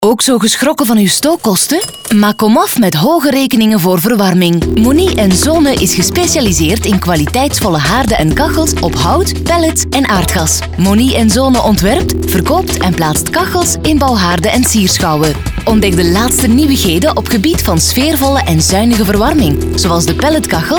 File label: commercial; mony en zonen